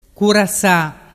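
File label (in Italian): "curassa", Vernazzese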